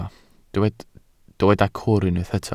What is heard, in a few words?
"cwr", Welsh